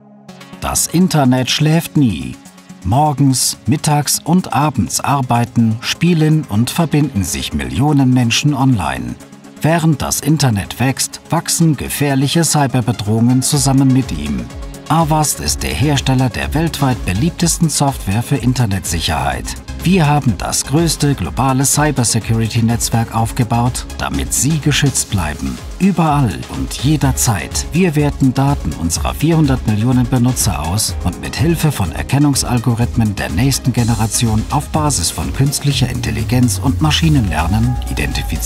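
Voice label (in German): german male commercial; voice demos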